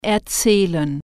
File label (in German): "tell", German